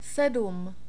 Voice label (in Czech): "sedm", Czech; numbers